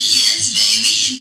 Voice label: VOICE, VOICE-FX

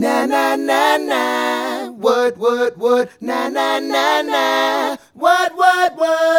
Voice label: Male Vocal

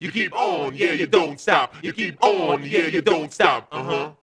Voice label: Male FX Vocals; Houseworx

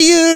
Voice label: YOU VOX, SHOUTS, Funky Vocals; USB Soundscan vol.18; AKAI

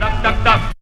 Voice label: Dub, Vox FX; Jungle

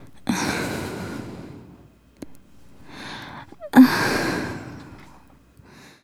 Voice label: Excited, Hip-Hop and RnB Vocals

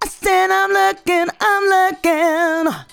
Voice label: I SAID I M, FEMALE VOICE; Best Service; Hallelujah

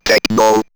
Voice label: Robot Techno, robot vox; X-Static Goldmine

